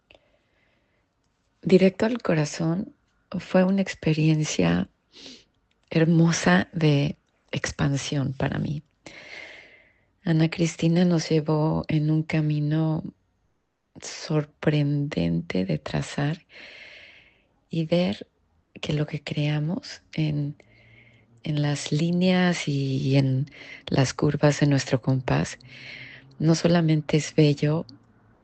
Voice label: Male review